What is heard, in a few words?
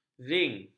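"zink", Malay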